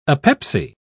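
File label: "apepsy", British English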